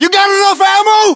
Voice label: status check, us

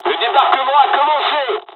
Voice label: dialog, dialogs french; War Thunder

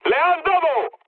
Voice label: aircraft destroyed mood med; War Thunder

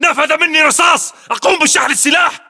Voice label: inform reloading generic, english battlechatter

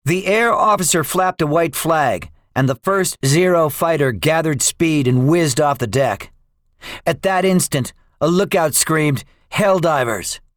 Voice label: debriefing, english; midway; War Thunder

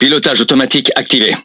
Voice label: Speech Autopilot Enabled, FR; World Of Warships

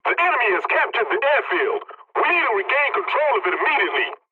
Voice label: pilot capture ground enemy, english; War Thunder

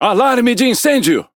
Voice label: Speech Alarms Fire Alarm, PT BR; World Of Warships

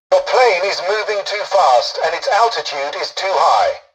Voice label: tutorial dialogue, english; War Thunder

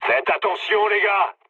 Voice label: near waypoint mood low, speech fr; War Thunder

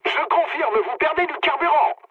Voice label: tank damaged mood med, speech fr; War Thunder

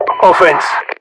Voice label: offense, localized english, UK voiceovers; mpvoice

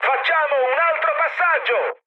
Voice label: bomb failure mood high, speech it; War Thunder

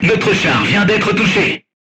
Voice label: voice message player tank hit, crew tanks french

